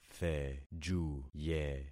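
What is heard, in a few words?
"thejuye", concatenated syllables; Affixing experiment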